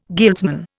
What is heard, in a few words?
"guildsman", Indian